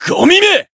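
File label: attack, Japanese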